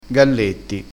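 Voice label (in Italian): "galletti", dialettale crespinese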